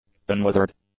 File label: "unwithered", english; adjectives